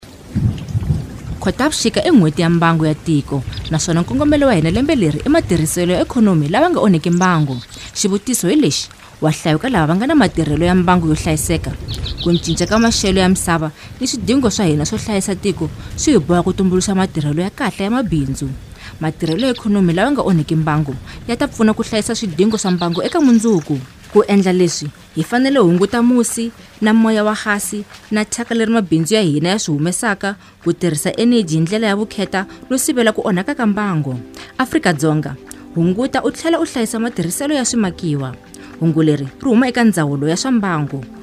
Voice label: tsonga; Xitsonga; National Environment Month 2012